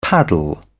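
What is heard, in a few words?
"paddle", British English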